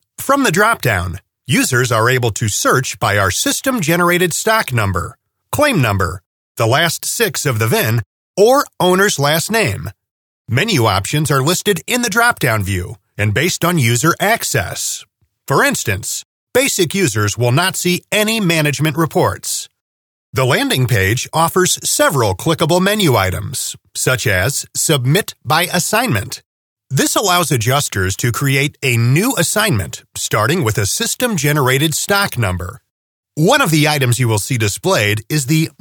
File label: elearning